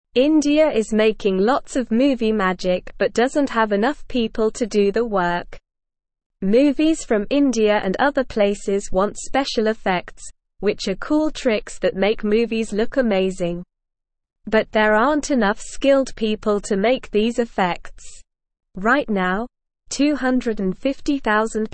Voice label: English SLOW Reading; India Needs More People to Make Movie Magic

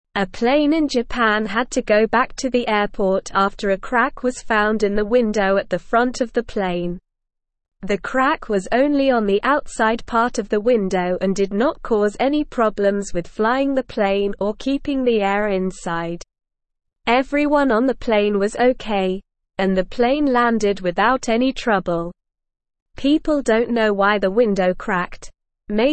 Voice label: English Lower Intermediate SLOW Reading; Plane in Japan goes back because of cracked window; English Newsroom

